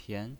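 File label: "pian" second tone, pinyin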